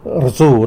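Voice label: "flies", Tlacolula Zapotec; rzu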